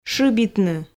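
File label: "шыбитны", Komi, female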